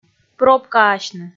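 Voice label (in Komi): "пробкаасьны" Komi, female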